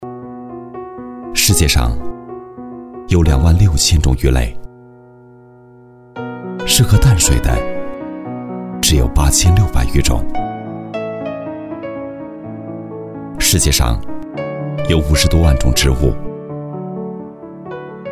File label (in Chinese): 男, 旁白, 角色; 造锁人